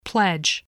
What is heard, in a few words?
"pledge", English